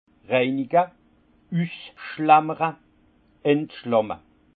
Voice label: "reinige", alsace; vin; débourbage

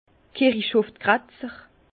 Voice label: "kirichhofgratzer", Bas Rhin; vin; qui remue les cimetières

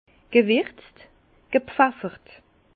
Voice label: "gwirtz", Alsace

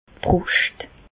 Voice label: "d' Brùscht", Bas Rhin; medecine; poitrine

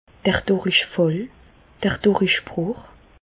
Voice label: "durichfall", Bas Rhin; medecine; diarrhés

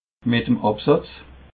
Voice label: "met em absatz", alsace

